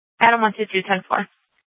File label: Sheriff, scanner; Shrf Northeast